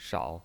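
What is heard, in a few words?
"shao", Mandarin Chinese pinyin, third tone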